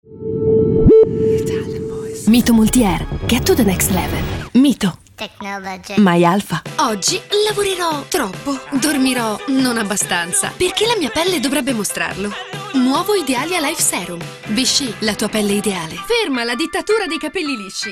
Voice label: Fresh Dynamic, ITALIANVOICES